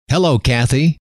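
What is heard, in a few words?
hello, male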